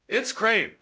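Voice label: Voice Acting Spanish, male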